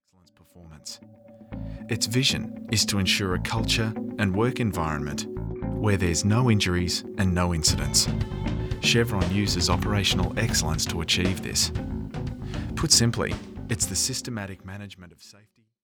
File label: Corporate